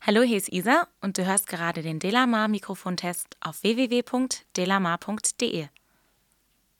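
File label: speech female; shure sm7b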